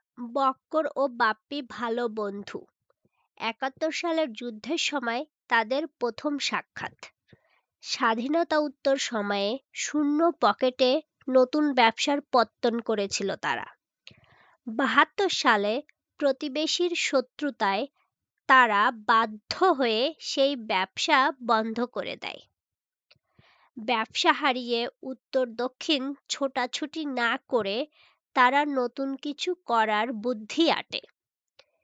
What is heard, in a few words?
Female Gem In Cont Speech